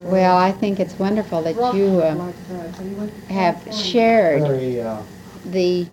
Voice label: Oral history with 74 year old white male Mesa County City Colorado, american english dialect recordings